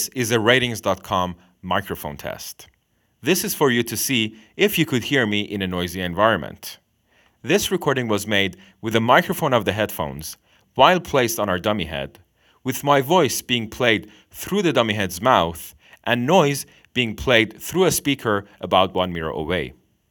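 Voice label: bt internal mic noise, gaming headset; Pink Noise; Swedish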